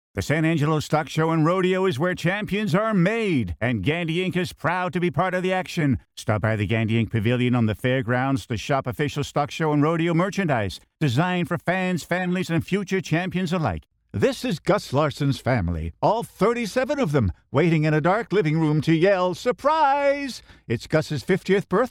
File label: male canadian voice over sales